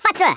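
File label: "Fireball", Afrikaans accent; Speech; International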